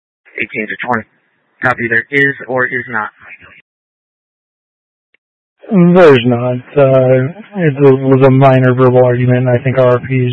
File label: Scottsdale Police, Simulcast; Simulcast H- Scottsdale